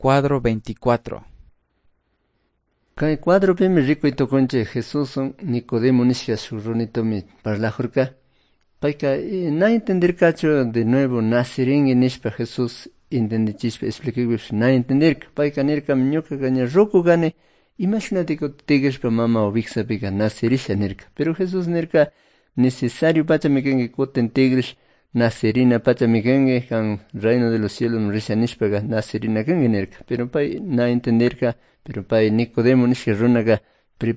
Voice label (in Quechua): good news quichua cayambe